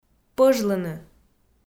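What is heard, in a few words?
"пӧжлыны", Komi, female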